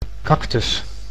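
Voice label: "cactus", Dutch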